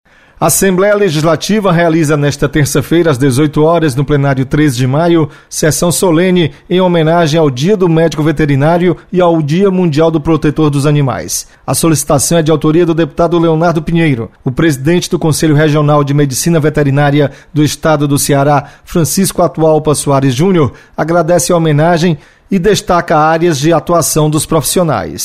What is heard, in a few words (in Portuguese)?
notícias, radio fm; Homenagem / Alece realiza sessão solene em homenagem ao Dia do Médico Veterinário; (2'17")